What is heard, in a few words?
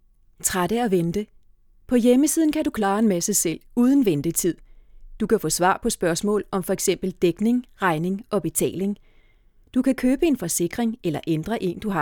IVR